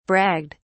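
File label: "bragged", English, British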